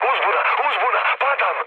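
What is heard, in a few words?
Serbian aircraft destroyed mood low, speech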